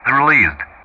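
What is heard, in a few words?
"released", English; Ka-50; DCS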